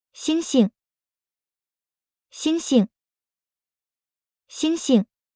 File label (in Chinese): "星星", Mandarin; Traditional Chinese